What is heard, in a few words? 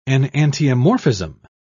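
"enantiomorphism", British English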